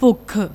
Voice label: "bhookh", Punjabi; ਭੁੱਖ